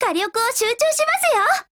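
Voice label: ATTACK, Japanese; MP5 Mod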